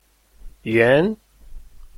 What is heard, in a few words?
"yuan2", Chinese